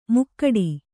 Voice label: "ಮುಕ್ಕಡಿ", Kannada

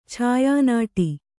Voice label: "ಛಾಯಾನಟ", Kannada

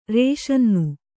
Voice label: "ರಸಾನ್ನ", kannada

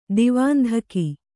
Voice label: "ದಿವಾಂಧಕಿ", Kannada

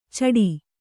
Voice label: "ಛಾಟಿ", Kannada

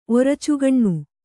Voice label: "ಒರಚುಗಣ್ಣು", Kannada

